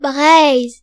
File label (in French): "bretagne", br; france; gcompris